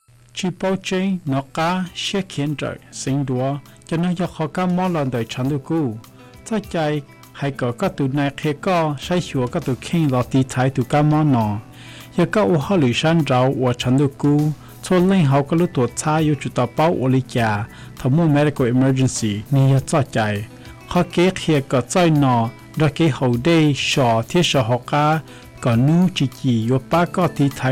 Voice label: Hmong Radio